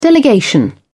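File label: "delegation", British English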